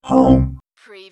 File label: Robot voice, "home"; lynne publishing